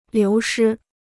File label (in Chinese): "流失", Chinese